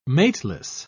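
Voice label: "mateless", British English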